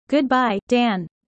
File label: user fast